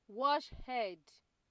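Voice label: "wash head", Nigerian Pidgin, female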